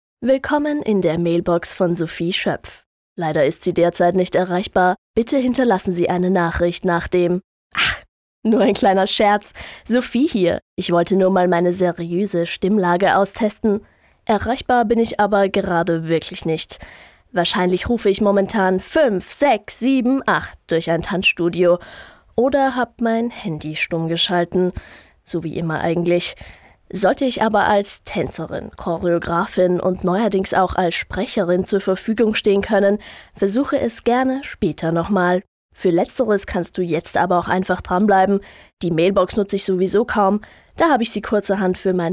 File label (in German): Telefonansage